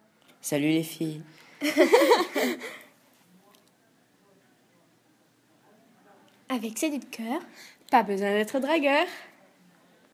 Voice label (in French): Pub Musique